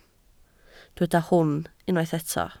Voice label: "hwn", Welsh